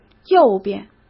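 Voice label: "右边", Mandarin Chinese; hsk; chinese-flashcards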